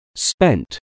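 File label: "spent", English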